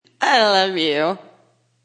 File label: Female Drunk, Drunk female talking; Voice